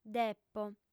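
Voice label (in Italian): "depo", lingua sarda, female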